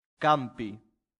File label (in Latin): "campi", latin; santillana